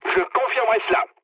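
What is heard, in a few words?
French player killed enemy mood med; War Thunder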